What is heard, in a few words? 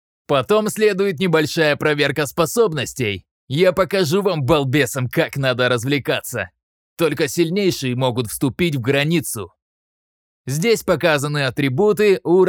Videogame character in Russian